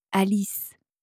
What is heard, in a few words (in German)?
"alice", franzoesische maedchennamen